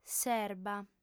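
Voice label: "s'erba", female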